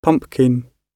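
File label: "pumpkin", British English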